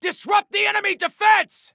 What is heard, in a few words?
Attack Disrupt, Soldier Male; Soldier Male 01